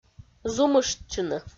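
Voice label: "зумыштчыны", Komi-Zyrian, female; audio dict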